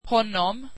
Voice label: swedish him